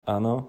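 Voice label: Czech yes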